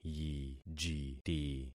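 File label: "yijiti", concatenated syllables; Affixing experiment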